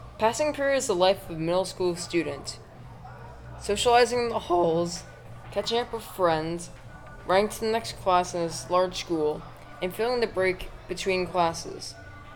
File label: Hallway Narration